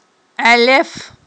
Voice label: "Iалеф", aghul